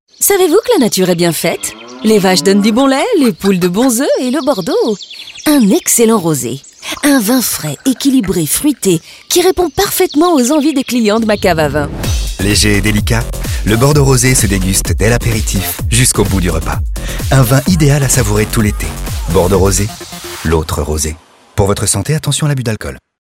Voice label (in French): Spot Radio; Le Spot Radio créé par nos étudiants (Dossier 204); Concours Bordeaux Rosé 2013